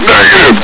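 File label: "negative!", male; hl2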